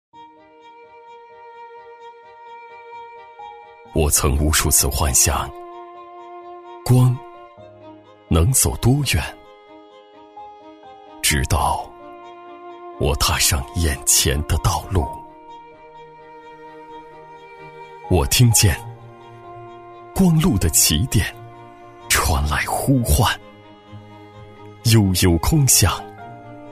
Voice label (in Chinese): 男 企业专题 温暖 走心; 厚重有力; 质感磁性